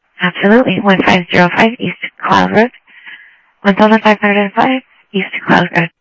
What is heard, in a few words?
Sheriff-EastCnty, scanner audio; MCSO - EAST; ThompsonPeakScot